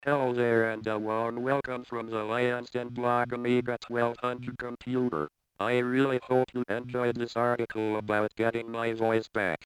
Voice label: Amiga Speaking